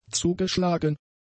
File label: "zugeschlagen", German; adjectives